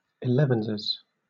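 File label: "elevenses", British English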